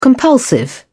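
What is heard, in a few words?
"compulsive", English, British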